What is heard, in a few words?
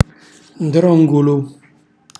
"ndrongulu", Calabrese; cucina calabrese; Glossario